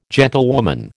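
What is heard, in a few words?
"gentlewoman", American English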